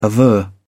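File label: "aver", English, British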